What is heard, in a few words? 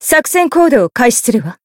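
encount, Japanese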